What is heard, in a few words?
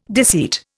"deceit", Indian